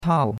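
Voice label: "tao4", Mandarin Chinese; Cours-de-Chinois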